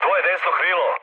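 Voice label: Serbian right wing mood low, speech; War Thunder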